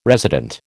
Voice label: "resident", American